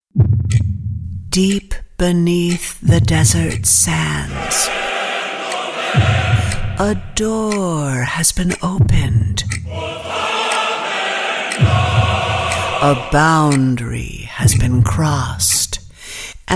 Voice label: Movie Trailer Voice of God Spooky low tone mixed, voiceover